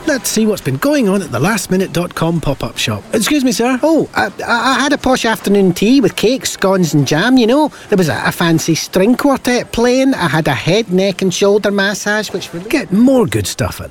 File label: montage commercial